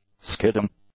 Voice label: "skidding", English; adjectives